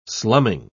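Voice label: "slumming", English, British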